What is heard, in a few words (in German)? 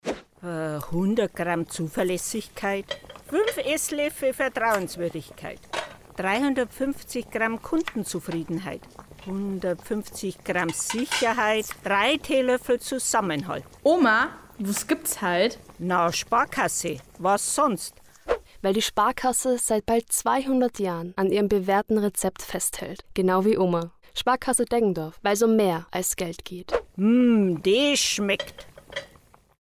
Werbung Sparkasse